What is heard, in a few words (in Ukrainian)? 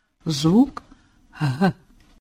"Ґ" звук